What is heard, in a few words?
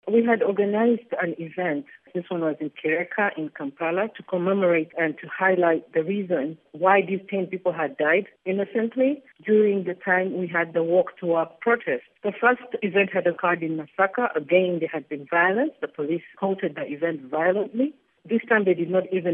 interview ugandan activist; rfi english; on crackdown of kampala remembrance rally